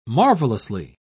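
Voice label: "marvelously", British English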